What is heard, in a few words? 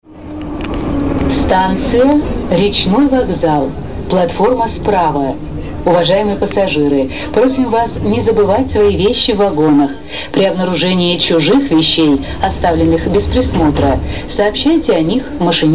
female